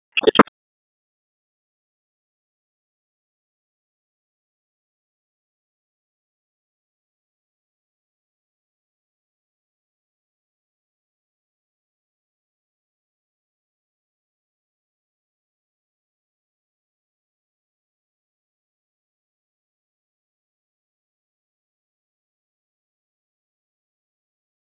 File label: Raleigh Police Southwest District Tac, p25; wake